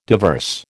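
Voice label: "diverse", American English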